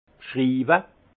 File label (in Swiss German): "bschriiwe", alsace; vin; décrire